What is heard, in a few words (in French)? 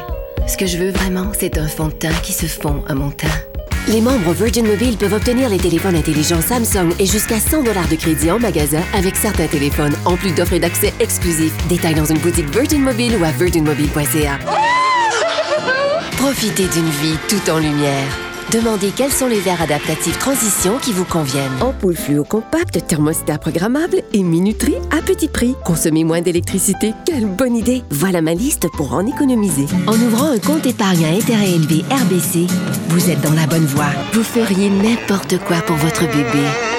Démos Publicités Français